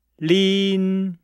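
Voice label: "lin", Chinese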